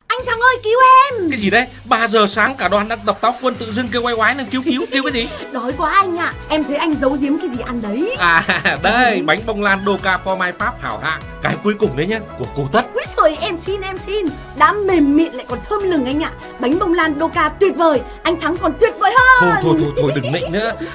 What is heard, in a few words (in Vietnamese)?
Giong dien thoai; Banh Bong Lan Doca